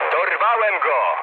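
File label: player killed enemy mood med, speech Polish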